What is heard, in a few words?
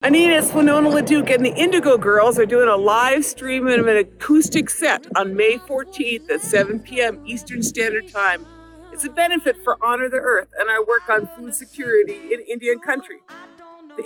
promo; winona laduke